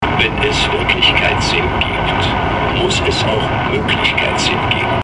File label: indoor announcements captain speaking